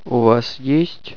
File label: have, russian